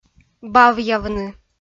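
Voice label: "бавъявны", Komi-Zyrian, female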